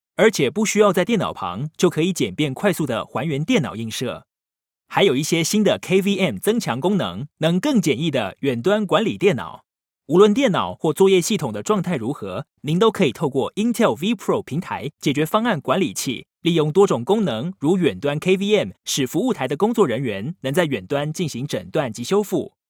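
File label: Chinese Taiwanese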